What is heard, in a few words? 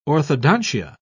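"orthodontia", British English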